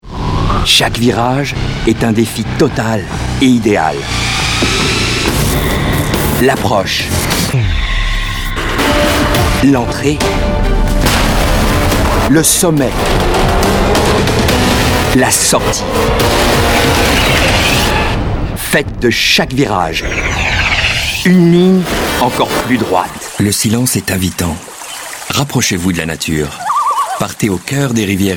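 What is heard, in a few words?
French Commercial; TaDa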